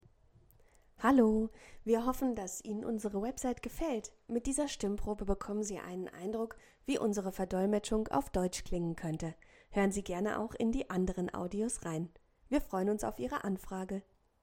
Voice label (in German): Deutsch